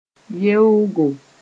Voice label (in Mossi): "yeoogo", moore